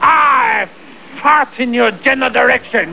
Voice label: male taunt; starsiege